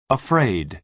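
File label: "afraid", American English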